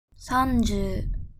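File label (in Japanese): "三十", Japanese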